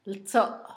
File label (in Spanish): ocotlán, Zapoteco, Asunción Ocotlan; Topónimos; INALI